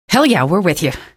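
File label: citizen evac ok, female; vo; npc; source engine; episodic; episode 1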